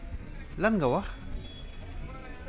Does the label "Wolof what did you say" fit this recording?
Yes